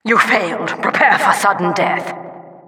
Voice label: announcer failed prepare sudden death

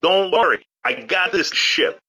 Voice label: battlecry; garfield

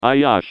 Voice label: taunt exert; pyro

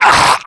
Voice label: been shot, zombie; Left 4 Fortress

